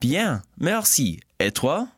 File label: conversation